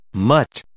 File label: "mutt", English